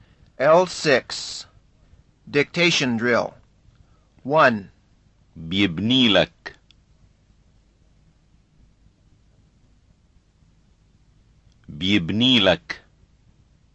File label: Levantine Arabic Pronunciation; FSI